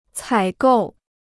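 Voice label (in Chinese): "采购" Chinese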